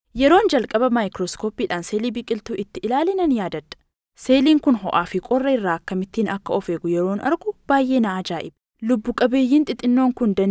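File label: Oromo, female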